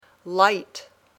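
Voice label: "light", English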